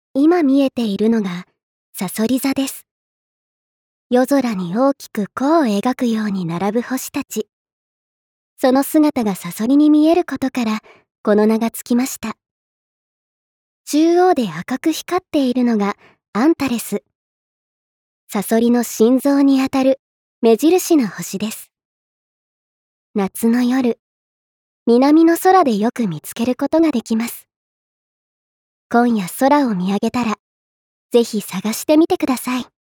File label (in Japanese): ナレーション